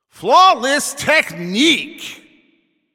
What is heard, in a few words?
Announcer Especially Good Shot